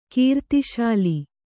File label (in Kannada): "ಕೀರ್ತಿಶಾಲಿ", Kannada